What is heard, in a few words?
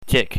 "jik", chinese